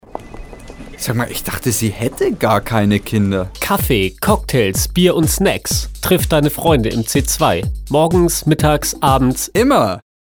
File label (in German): Werbung Reminder